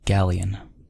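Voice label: "galleon", American English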